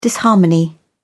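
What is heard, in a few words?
"disharmony", British English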